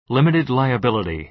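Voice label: "limited liability", American English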